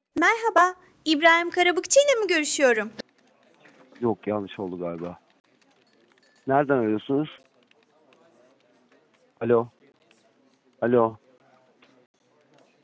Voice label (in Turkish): Yapay Zeka Arama, Görüşme Kaydı; Yok. Yanlış oldu galiba. Nereden ölüyorsunuz? Alo. Alo. Alo